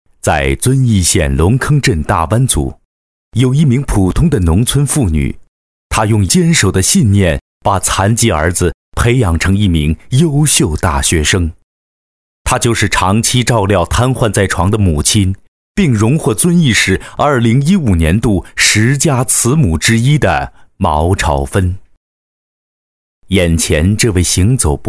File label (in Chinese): 男, 专题, 深情类, 母爱深情